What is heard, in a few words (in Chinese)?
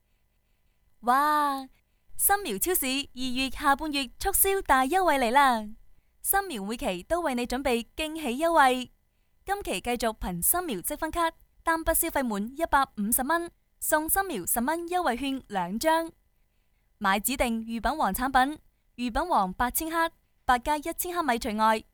女, 粤语 超市促销 自然